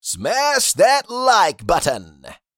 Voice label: Smash that like button social media male

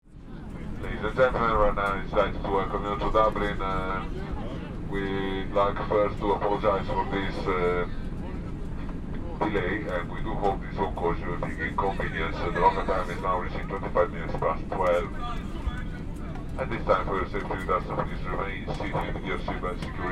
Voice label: Airport ambience PA announcement; JFK airport

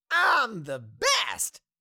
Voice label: Cartoon little monster voice i am the best

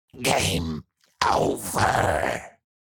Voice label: Demon beast imp monster game over

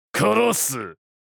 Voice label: I will kill you anime villain character

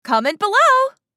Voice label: Comment below social media male

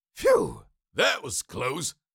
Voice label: Cartoon lion voice; phew that was close

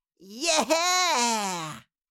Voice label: "yeah", Cartoon little child voice

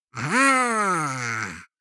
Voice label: surprise exclamation voice; Minion; minions

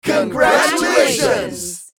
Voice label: Mixed crowd congratulations

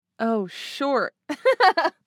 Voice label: Oh sure with giggle female voice

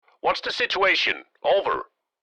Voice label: Military radio voice question whats the situation

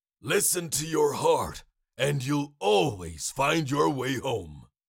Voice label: Cartoon lion voice, "listen to your heart"

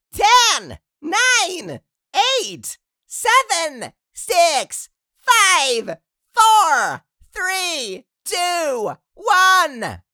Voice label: Countdown voice jolly elf

